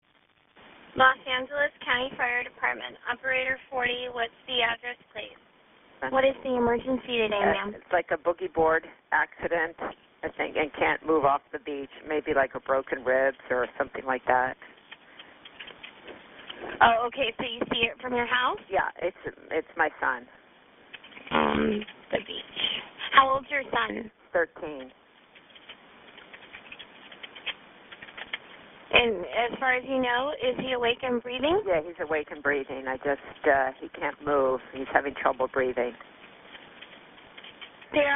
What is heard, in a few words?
911 call